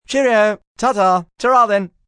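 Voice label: "Cheerio" British male; Goodbyes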